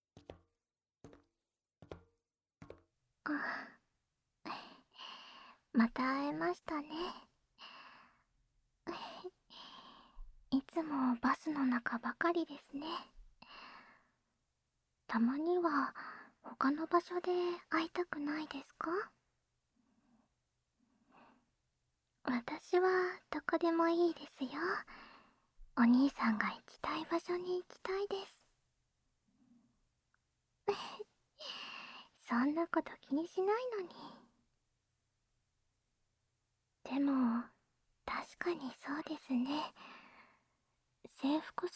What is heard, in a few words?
whispering epilogue; BusCut; Bus sound effects removed; Super Whisper! ~Sweet JK Big Sis Kotoko Shinomiya on the Bus to Work~ [Binaural]